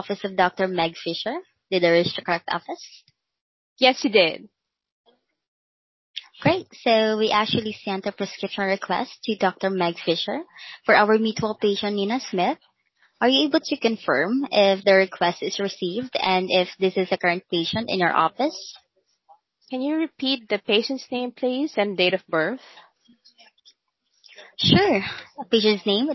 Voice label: Outbound Call; DME Dr Call Outbound; contact center services